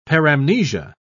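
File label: "paramnesia", British English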